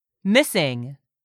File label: "missing", English; 3000 words